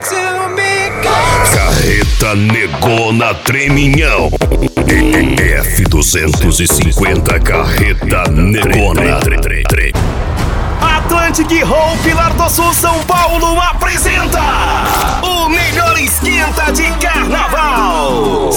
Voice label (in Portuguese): locucao; brasil